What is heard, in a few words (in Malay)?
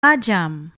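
"tajam", Malay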